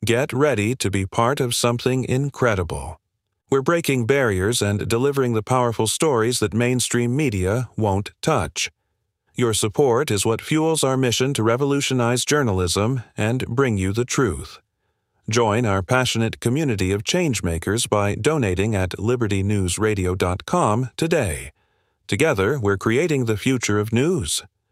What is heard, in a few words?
commercial